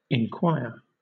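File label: "enquire", British English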